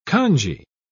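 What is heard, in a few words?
"congee", British English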